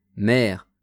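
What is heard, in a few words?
"mere", French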